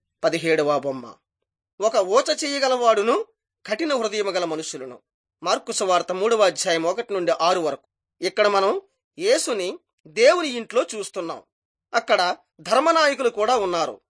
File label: telugu bangladesh; jesus teacher and healer